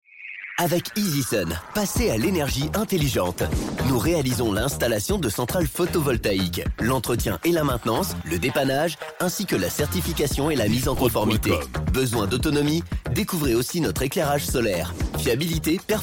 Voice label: Spot; Easysun